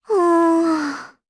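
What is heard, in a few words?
voice, Japanese; Artemia; kingsraid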